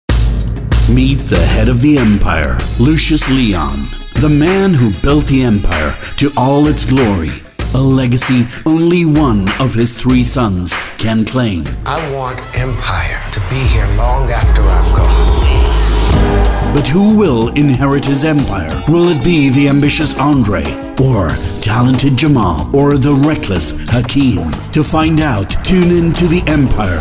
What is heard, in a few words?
male american accent; EMPIRE